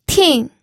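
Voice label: "ting4", Mandarin Chinese; pinyin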